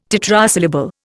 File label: "tetrasyllable", Indian